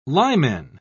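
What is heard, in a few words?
"limen", British English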